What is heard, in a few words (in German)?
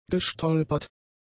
"gestolpert", german; adjectives